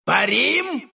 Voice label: move, ru; dota2